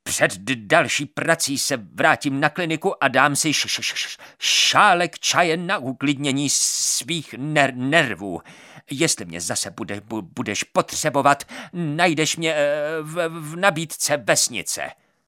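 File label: Male Czech drama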